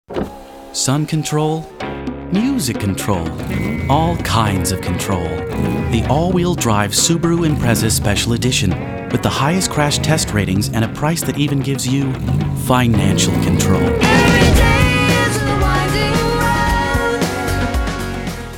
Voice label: voiceover